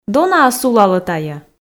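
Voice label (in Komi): дона-ӧ сулалӧ тайӧ, Komi, female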